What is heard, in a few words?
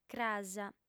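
"cras", female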